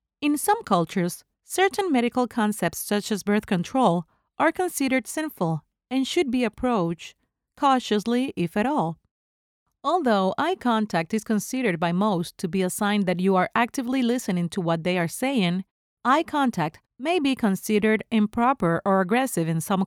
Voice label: english documentaries